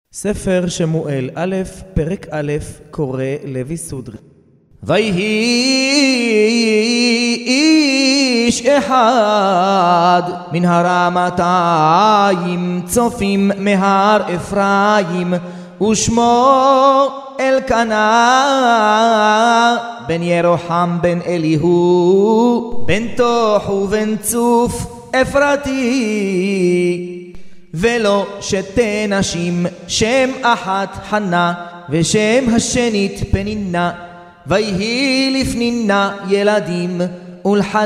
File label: recorded tanach shmuel morrocan